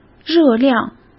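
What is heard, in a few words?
"热量", Mandarin Chinese; chinese-flashcards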